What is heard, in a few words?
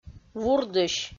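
"вурдысь", Komi, female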